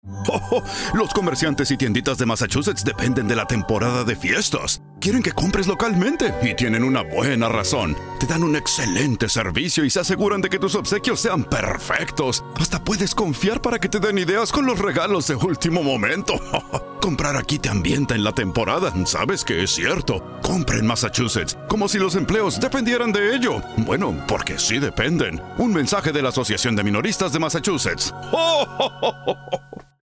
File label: Holiday Radio Spanish; Retail Assoc Of Mass